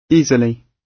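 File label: "easily", British English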